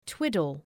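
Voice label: "twiddle", English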